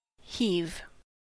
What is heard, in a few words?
"heave" verb